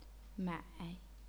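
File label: "mai", third tone, chinese pinyin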